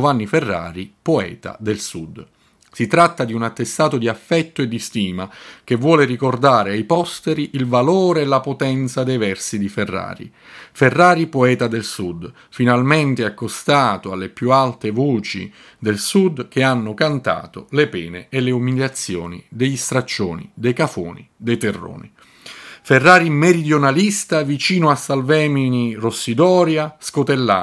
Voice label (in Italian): lettura poesia